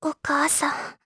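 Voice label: vox dialogue, voices, Japanese; kingsraid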